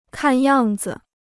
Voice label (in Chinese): "看样子", Chinese